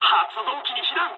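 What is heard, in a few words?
Japanese damaged engine mood low; War Thunder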